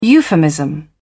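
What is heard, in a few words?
"euphemism", British English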